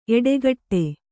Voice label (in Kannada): "ಎಡಗಡೆ", Kannada